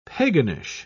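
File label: "paganish", British English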